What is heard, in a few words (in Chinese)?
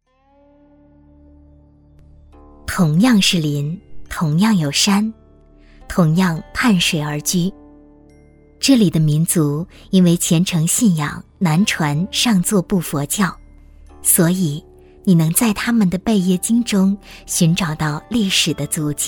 女 专题; 佛教出家修行仪式